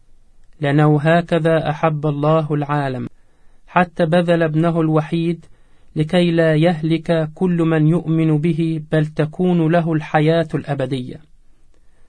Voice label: arabic audio john 3-16